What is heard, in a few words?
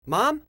"mom", voices